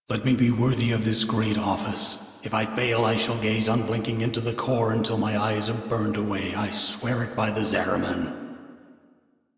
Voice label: Crew Idle, Male; Dialog; en; Warframe; Railjack Crew; Arbiters Hexis

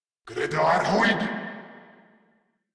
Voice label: Reload, Grineer Male

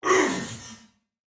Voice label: Pain, male soldier; Dialog; Barks; Battle; Sinecure

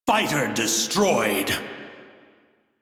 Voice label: Crew Gun Kill Fighter, Male; Dialog; en; Warframe; Railjack Crew; Arbiters Hexis

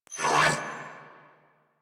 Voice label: Surprised Grineer Male; New War; Narmer

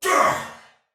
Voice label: Attack Normal Soldier Male, Battle; Dialog; Barks; Sinecure

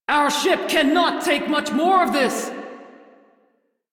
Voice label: Crew Ship Low, Female; Dialog; en; Warframe; Railjack Crew; Steel Meridian

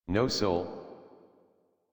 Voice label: Adult, en, Dialog; Cave; Warframe; Duviri Paradox Quest

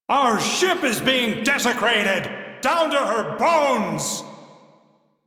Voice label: Crew Ship Low, Male; Dialog; en; Warframe; Railjack Crew; Arbiters Hexis

